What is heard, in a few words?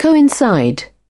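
"coincide", British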